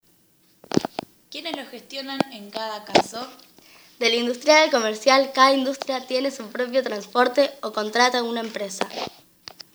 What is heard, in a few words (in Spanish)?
Nota de voz